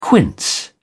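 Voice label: "quince", British English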